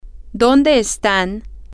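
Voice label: where Are, Spanish, female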